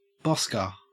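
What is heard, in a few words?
"bosker", Australian English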